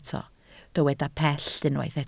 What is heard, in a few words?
"pell", Welsh